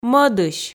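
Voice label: "мӧдысь", Komi, female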